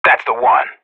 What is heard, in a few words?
radio bot nice shot, professional; player vo